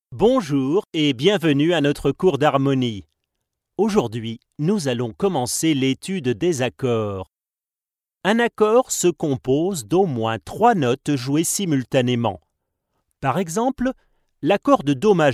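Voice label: E-learning Edu, male voice over, French; Ausbildung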